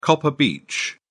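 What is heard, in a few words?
"copper beech", British English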